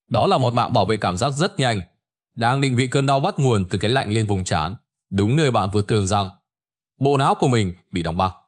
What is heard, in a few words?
narration; Vi Sao An Kem Lanh Khien Dau Ban Dau Buot; StickerMan